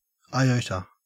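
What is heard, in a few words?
"iota", Australian English; pronunciations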